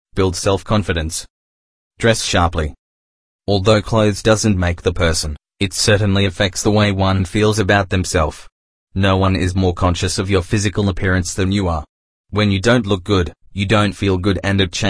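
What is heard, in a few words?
English Australian Male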